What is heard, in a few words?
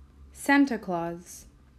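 "Santa Claus", English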